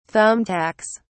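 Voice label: "thumbtacks", British English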